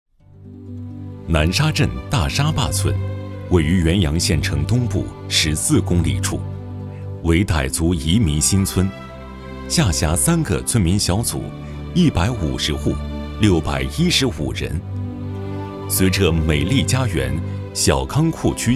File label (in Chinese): 男,纪实专题片